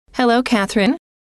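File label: "hello", female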